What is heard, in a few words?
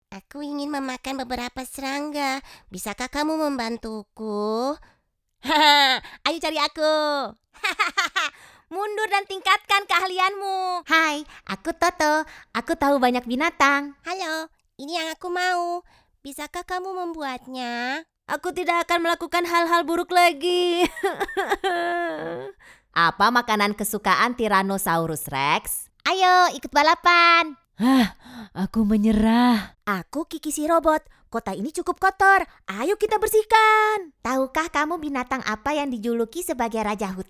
Little Boy